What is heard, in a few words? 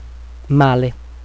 male; Italian; Very bad, miserable